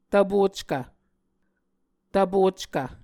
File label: "tvpockv", muscogee